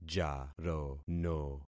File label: "jarono", concat syllables; Affixing experiment